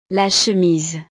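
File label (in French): "la chemise", French; ropa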